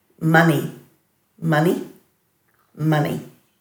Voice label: "mani", Dhurga

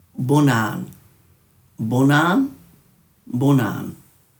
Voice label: "bunaan", Dhurga

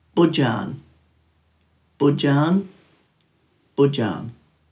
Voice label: "budjan", Dhurga

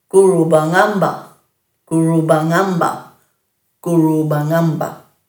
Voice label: "gurubangamba", Dhurga

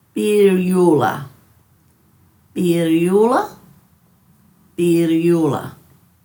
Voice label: "biryuula", Dhurga

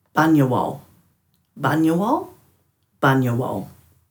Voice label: "banjawal", Dhurga

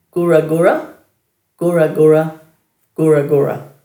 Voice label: "guragura", Dhurga

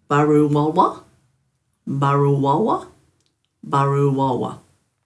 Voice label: "baruwalwa", Dhurga

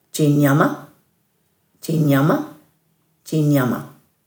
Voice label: "djinjama", Dhurga

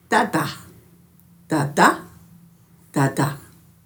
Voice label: "dadha", Dhurga

